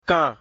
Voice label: "kan", mina